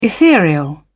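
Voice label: "ethereal", British English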